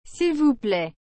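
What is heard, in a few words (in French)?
"S'il vous plaît", French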